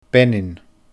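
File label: "Beinin", Scottish Gaelic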